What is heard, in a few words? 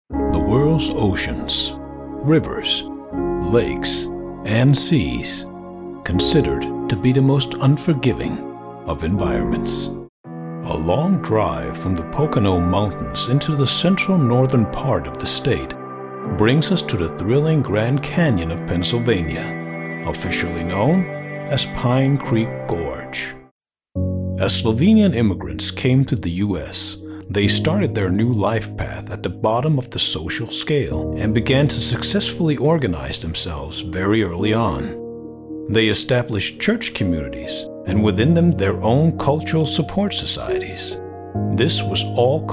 ENG Documentary Narration